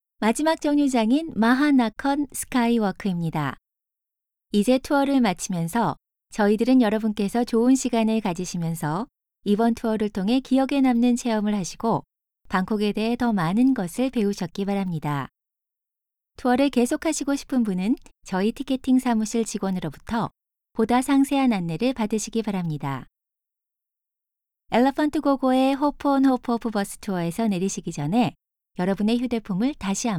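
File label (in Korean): f KO E-Learning, female Korean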